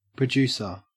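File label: "producer", Australian English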